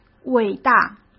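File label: "伟大", Mandarin Chinese; chinese-flashcards; hsk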